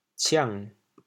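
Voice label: "ciang3", Teochew, chaoyang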